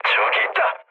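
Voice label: Korean enemy detected mood low, speech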